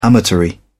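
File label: "amatory", English, British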